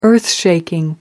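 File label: "earthshaking", British English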